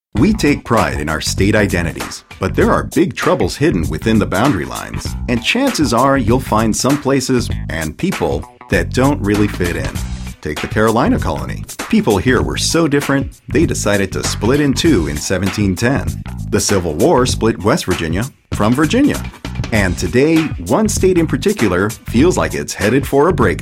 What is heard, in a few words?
narration; how the states got their shapes